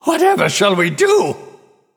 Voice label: Male Nightborne

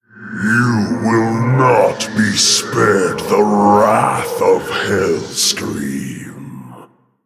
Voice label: Male Orc Greetings; Garrosh Deathknight

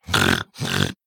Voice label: Female Quilboar Attack

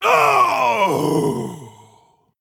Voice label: Female Quilboar Death

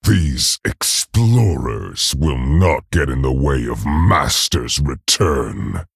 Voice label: Male Tauren Evil Fight

